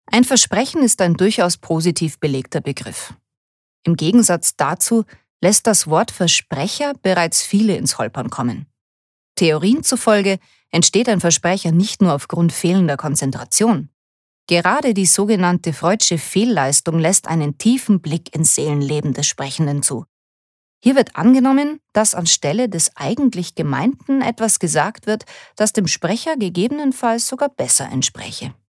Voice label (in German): Versprecher Bayerisch